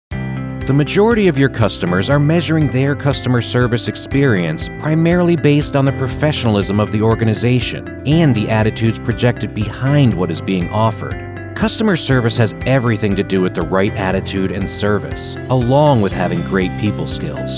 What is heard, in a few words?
E Learning